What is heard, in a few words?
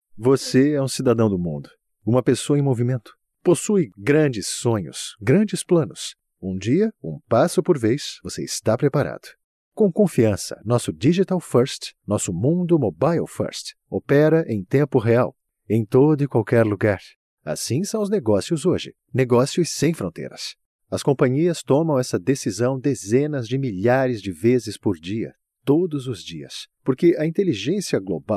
business man voice